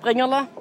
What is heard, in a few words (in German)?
Dialekte; GHV Dialekte 2018